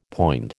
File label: "poind", American